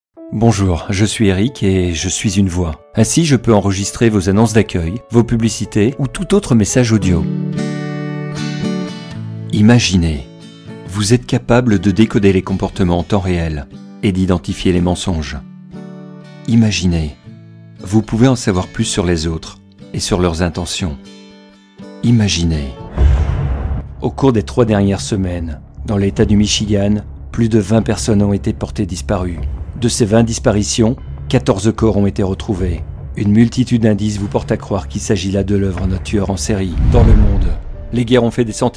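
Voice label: French, male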